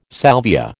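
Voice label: "salvia", American English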